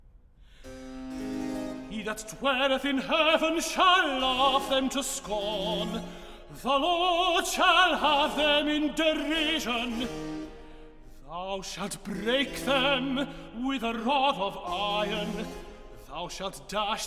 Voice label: recit; he that dwelleth; Handel Messiah